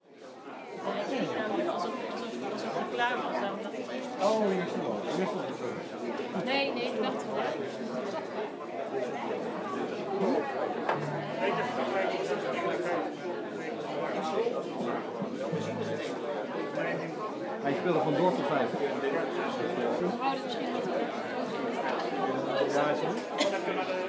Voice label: Foyer Mumbling Dutch